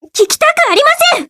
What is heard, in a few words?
pumped